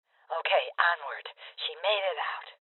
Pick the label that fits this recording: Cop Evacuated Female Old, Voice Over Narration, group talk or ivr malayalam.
Cop Evacuated Female Old